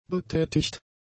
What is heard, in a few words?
"betätigt", German; adjectives